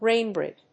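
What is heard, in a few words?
"rainbird", English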